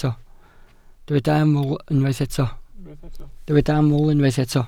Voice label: "aml", Welsh